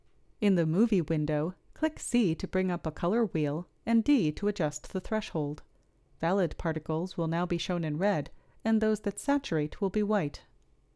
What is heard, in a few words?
Voiceover